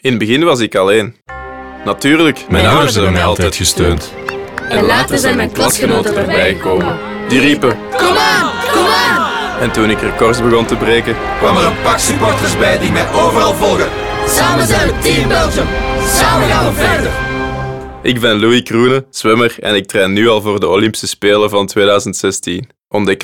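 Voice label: radio, dutch; natlot; sporza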